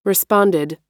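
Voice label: "responded", US English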